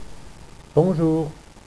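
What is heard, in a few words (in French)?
"bonjour", French; BUENOS DÍAS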